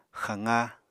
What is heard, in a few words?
"xaqá", Apatani